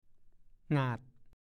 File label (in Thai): "หงาด", ภาษาโคราช